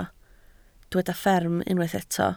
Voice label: "fferm", Welsh

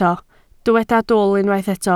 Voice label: "dwl", Welsh

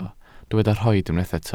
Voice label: "rhoid", welsh

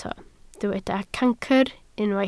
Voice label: "cancr", Welsh

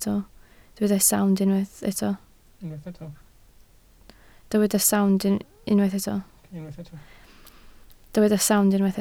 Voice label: "sownd", Welsh

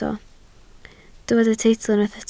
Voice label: "teitl", Welsh

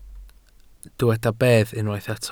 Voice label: "bedd", Welsh

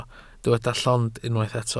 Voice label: "llond", Welsh

